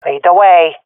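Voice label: following friend, swat female; Counter Strike Model Pack; CS:GO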